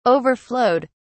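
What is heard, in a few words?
"overflowed", British English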